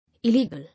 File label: "Illegal", English